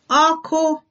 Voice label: fire, igbo

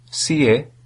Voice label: how are you, albanian; Albanian (Tosk); Si je?

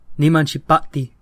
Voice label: get well soon nahuatl

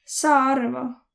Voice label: understand, voro

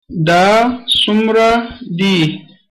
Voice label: how much, pashto

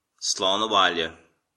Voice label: bon voyage, irish

